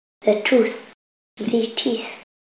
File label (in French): anglais lecon face